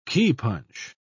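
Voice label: "keypunch", British English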